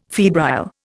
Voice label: "febrile", Indian